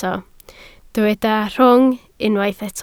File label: "rhwng", Welsh